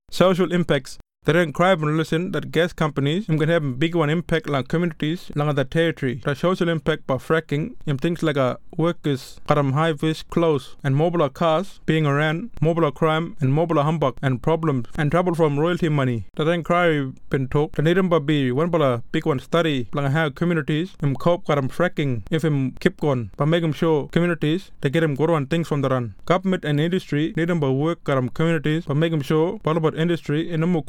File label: kriol; SOCIAL IMPACTS